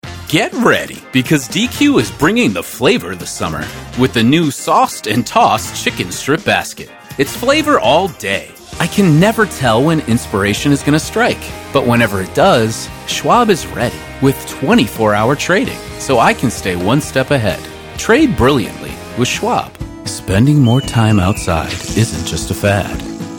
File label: Commercial, Male Voice Over Talent; Working from a professional home studio